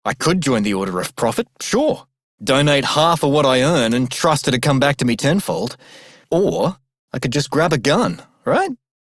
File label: Male Worker, en; Dialog; Warframe; Solaris Venus